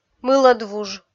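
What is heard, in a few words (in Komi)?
"мылӧдвуж", Komi, female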